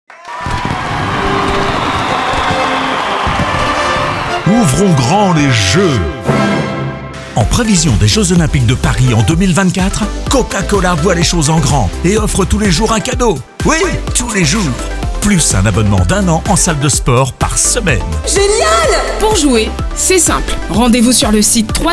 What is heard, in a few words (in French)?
voix off; COCA-COLA